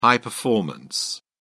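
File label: "high-performance", English, British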